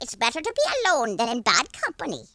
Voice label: "traitor", Irish; speech